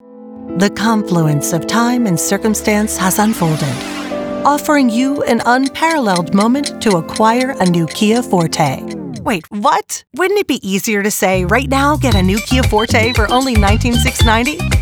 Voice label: Commercial, warm, friendly, inviting, conversational, upbeat; Auto Demo